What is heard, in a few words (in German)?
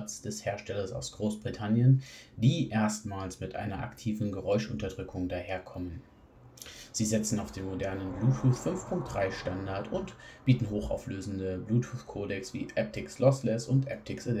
melomania m100 mikrofon